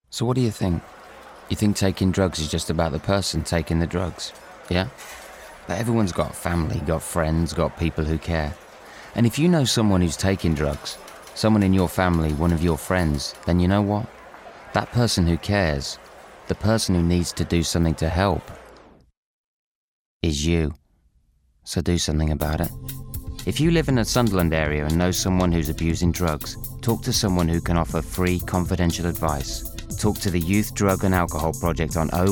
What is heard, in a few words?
COMMERCIAL; Youth Drug and Alchohol Project; Sun Think; Talk To Frank